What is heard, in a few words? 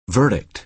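"verdict", American English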